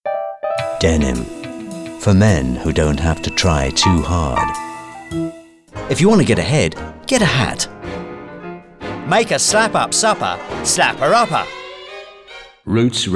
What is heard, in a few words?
man british